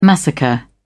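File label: "massacre", British English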